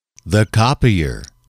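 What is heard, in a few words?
"copier", English, male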